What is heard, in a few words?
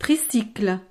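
"tricycle", French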